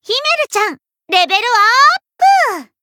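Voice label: Voice growth, Japanese; ciel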